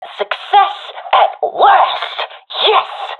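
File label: cheer, professional female; vo; agents; Source Model Packs; Counter Strike Model Pack; CS2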